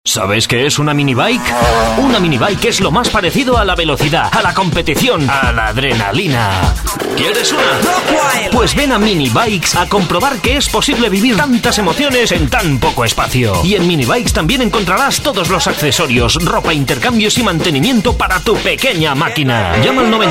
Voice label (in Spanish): castellano